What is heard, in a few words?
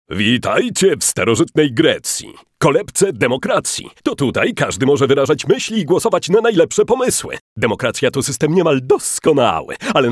voice m act